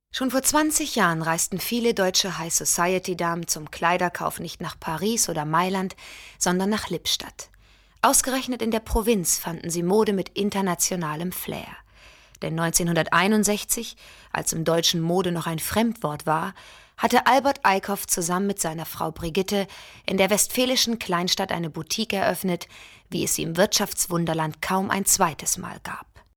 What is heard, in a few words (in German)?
kommentar